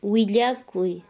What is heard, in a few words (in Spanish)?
"cuento", Quechua Chanka